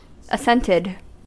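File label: "assented" English, US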